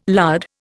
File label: "lard", Indian